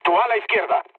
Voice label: left wing, mood low, speech; War Thunder